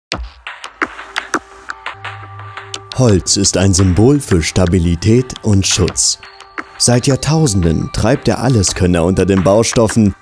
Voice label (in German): Imagefilm Off; Fingerhaus